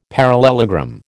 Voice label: "parallelogram", American